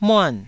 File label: "muan4", taiwanese syllables